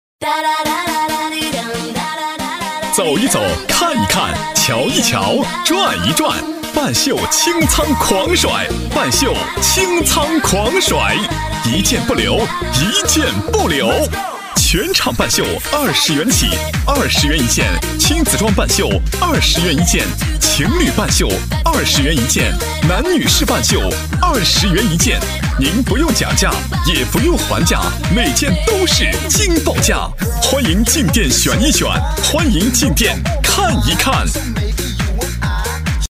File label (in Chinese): 男 广告配音; 大气磁性 大气激昂